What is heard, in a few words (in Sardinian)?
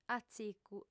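"atzicu", female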